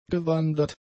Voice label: "gewandert", German; adjectives